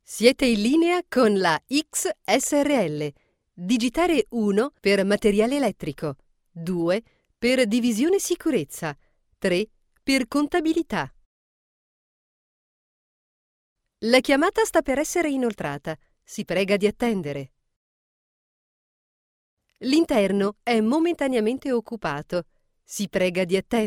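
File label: Italian, female, telecom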